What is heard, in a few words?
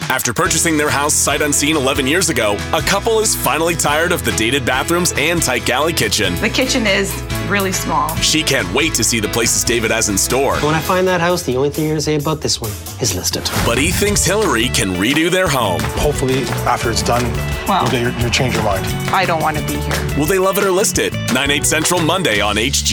promo voiceover; HGTV